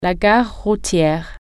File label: bus station, french